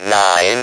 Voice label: speak and spell "nine"; NUMBERS; VOCALS and SPOKEN WORD

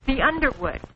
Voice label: the underwood, Quirky Female Typing Instructor; VOCALS and SPOKEN WORD

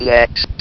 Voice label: "NEXT", votrax; VIDEO GAMES

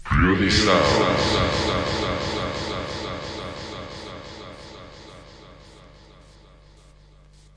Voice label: groovy sounds, male; VOCALS and SPOKEN WORD

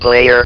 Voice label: "PLAYER", votrax; VIDEO GAMES

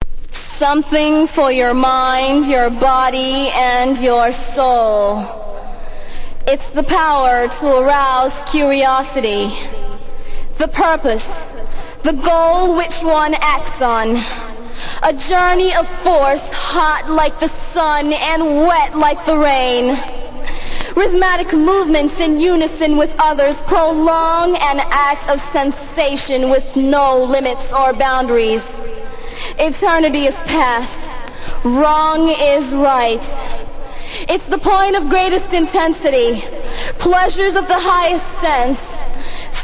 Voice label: femvox the realm speech; MISC and SPOKEN WORD

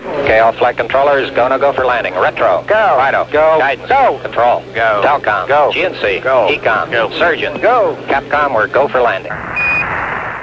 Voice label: launch, NASA Space Shuttle Communications; Apollo 11; VOCALS and SPOKEN WORD

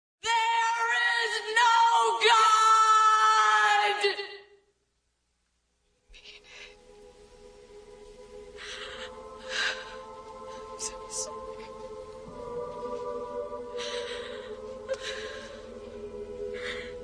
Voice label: there is no god, VOCALS and SPOKEN WORD; female misc and spoken word

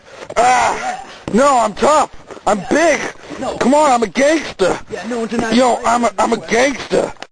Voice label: yeah tuff im a gangster, male; VOCALS and SPOKEN WORD

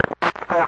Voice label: radio voice, Distorted Radio Voice; VOCAL

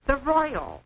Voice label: the royal, quirky female typing instructor; VOCALS and SPOKEN WORD

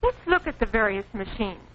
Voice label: varous machines, Quirky Female Typing Instructor; VOCALS and SPOKEN WORD

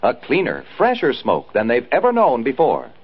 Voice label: cleaner fresher smoke, Commercials and Radio; VOCALS and SPOKEN WORD

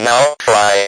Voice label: speak and spell "now try"; OPERATING SYSTEM; VOCALS and SPOKEN WORD

